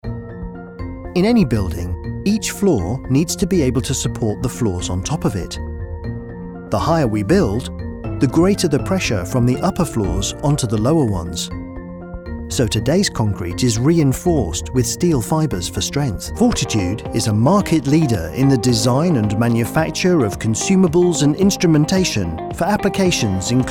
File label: Narrative